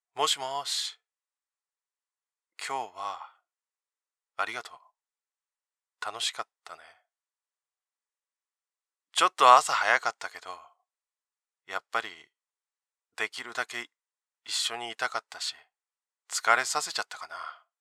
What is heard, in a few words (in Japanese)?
低音 モノラル, TEL加工あり; 低音オナニーモノラル